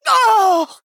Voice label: Female Blood Elf Death

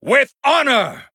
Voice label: Male Human Picked

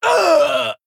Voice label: Female Tauren Death

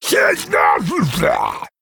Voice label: Male Murloc Attack

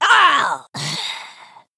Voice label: Goblin Emote Death

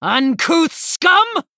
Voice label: Female Human Attack

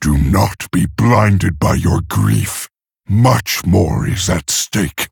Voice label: Male Orc Idle

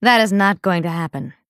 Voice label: Female Dryad ERROR

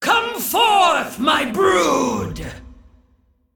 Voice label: Female Dragon Trigger

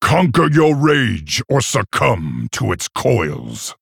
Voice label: Male Orc Threaten

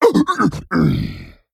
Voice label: Male Hozen Death

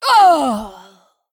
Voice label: Female Dragon Death

